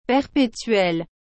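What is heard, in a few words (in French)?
"perpetuelle", French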